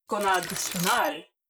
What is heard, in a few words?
robot human female greetings; Stellaris; synthetic dawn